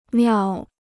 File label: "庙", Chinese